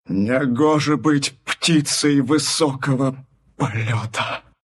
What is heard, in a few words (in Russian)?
death ru